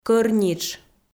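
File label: "кӧр нитш", Komi-Zyrian, female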